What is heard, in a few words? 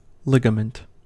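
"ligament", American English; pronunciations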